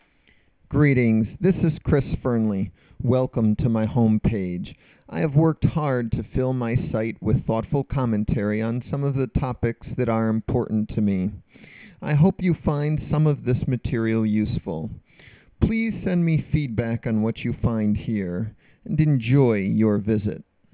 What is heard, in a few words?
voice greeting